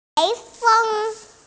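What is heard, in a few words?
"typhoon", Mandarin, hearing-impaired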